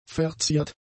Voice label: "verziert", German; adjectives

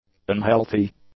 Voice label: "unhealthy", english; adjectives

